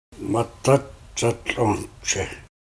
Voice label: "Madáts'atl'ǫje", Dane-ẕaa; Snare Hill